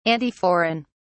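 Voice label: "antiforeign", British English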